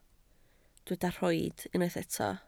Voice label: "rhoid", Welsh